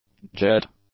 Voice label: "jet", English; nouns

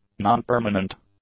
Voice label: "non-permanent", english; adjectives

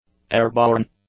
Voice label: "airborne", English; adjectives

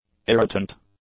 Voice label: "irritant", english; adjectives

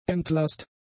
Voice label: "entlasst", German; adjectives

